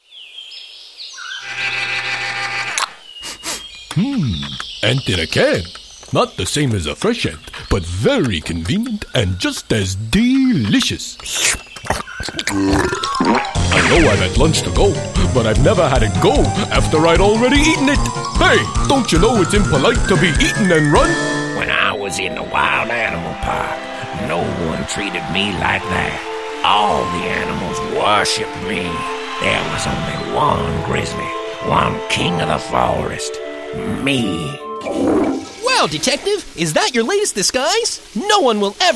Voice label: Animation